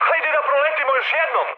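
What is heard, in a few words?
Serbian bomb failure mood high, speech; War Thunder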